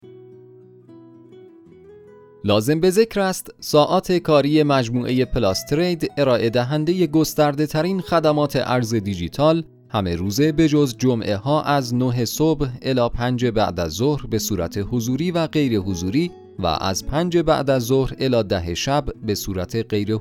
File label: Narration M